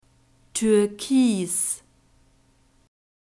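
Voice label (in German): "türkis", German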